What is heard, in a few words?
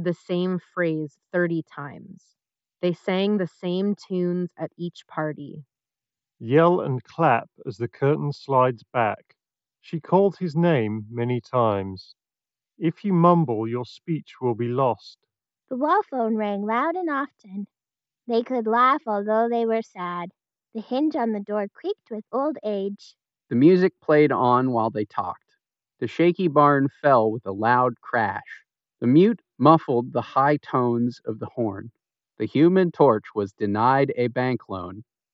Razer Hammerhead Hyperspeed USB Ideal microphone sample